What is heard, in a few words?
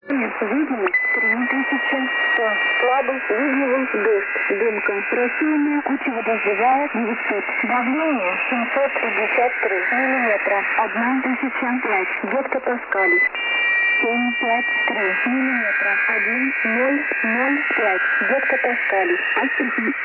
Volmet, 6617 kHz, USB; Rostov Volmet; FTDX5000; Schwarzsee